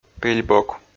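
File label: "пель бок", Komi, male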